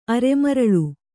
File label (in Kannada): "ಅರೆಮರಳು", Kannada